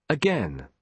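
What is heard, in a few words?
"again", British English, male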